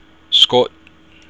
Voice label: "scot"; trespasser; IRISH PRONUNCIATION